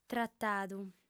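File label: "tratadu", female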